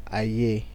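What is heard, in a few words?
"aye", Nigerian Pidgin, male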